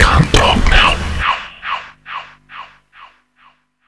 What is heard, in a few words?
Can't Talk Now, Vox FX; Total Drum&Bass